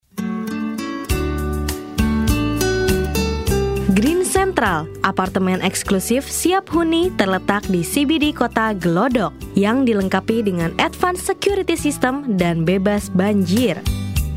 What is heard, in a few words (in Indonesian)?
Contoh Pasang Iklan Radio Iklan Adlibs; Green Central